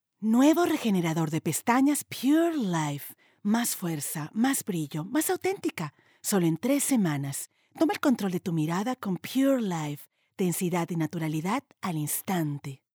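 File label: Spanish Latin America female sales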